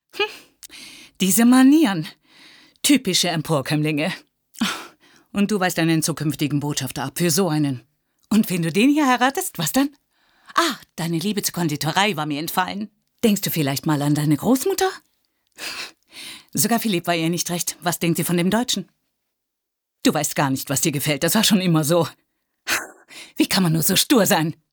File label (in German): Realfilm Synchro; Goia